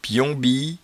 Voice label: "piombie", patois jura